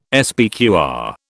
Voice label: "SPQR", British

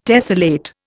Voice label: "tessellate", Indian

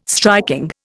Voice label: "striking", Indian

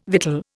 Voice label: "victual", Indian

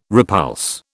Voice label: "repulse", British

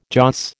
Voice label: "joss", American

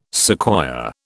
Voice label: "sequoia", British

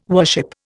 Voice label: "worship", Indian

